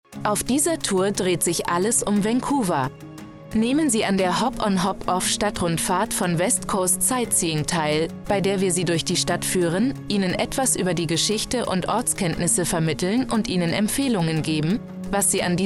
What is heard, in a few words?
German